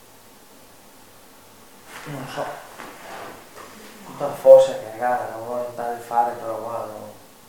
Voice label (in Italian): Voce femminile sotto voce